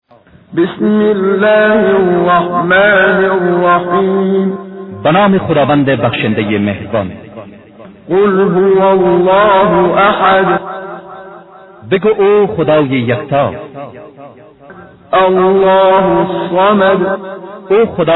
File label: Surah Farsi; Menshay Translation